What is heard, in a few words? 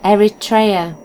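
"eritrea", British English; gcompris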